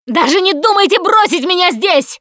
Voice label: ledge hang middle, russian, Survivor Voice Producer